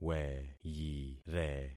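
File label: "weyire", concatenated syllables; Affixing experiment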